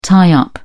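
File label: "tie up", British English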